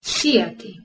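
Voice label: "sijati" Bosnian Croatian Serbian, female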